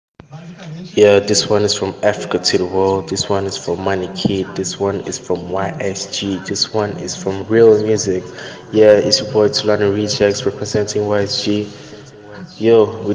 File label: Outro Speaking; TRAPGODS Vol.2